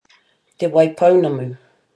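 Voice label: "te waipounamu", Māori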